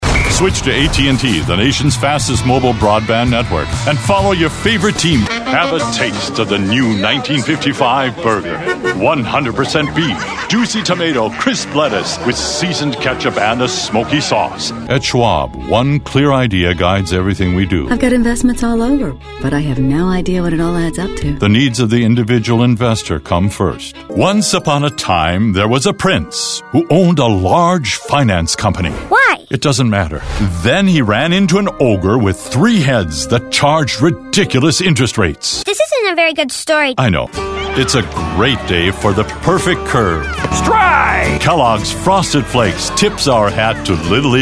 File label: Commercial, Male voices